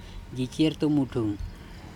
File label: "jicertumuTHung" heavy rain, andamanese